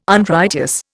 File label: "Unrighteous", Indian